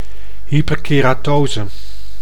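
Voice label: "hyperkeratose", Dutch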